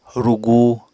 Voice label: "rugu", Apatani